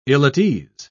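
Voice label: "ill-at-ease", English, British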